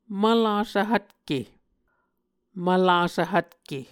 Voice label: "mvlasv hvtke", Muscogee

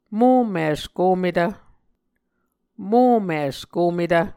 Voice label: "momis kometv", Muscogee

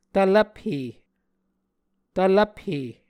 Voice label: "tvlvphe", Muscogee

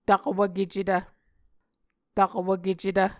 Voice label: "takwvkecetv", Muscogee

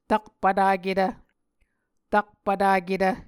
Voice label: "takpvtaketv", Muscogee

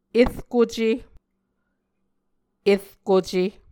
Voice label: "erkuce", Muscogee

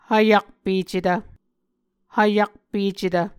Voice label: "hvyakpecetv", Muscogee

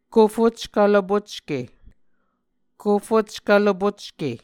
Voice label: "kofockv lopocke", Muscogee

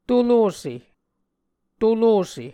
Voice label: "tolose", Muscogee

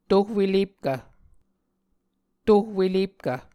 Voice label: "tohwelepkv", Muscogee

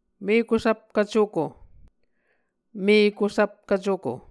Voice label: "mekusvpkv cuko", muscogee

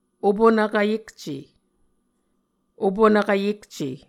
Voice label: "opunvkv yekce", Muscogee

